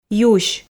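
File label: "юсь", Komi, female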